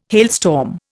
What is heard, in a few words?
"hailstorm", Indian